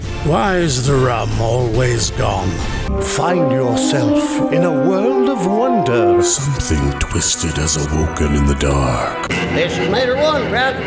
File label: Character Spots